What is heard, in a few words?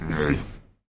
Pigs Talk, Large And Moustache; fowl; Pigs Set2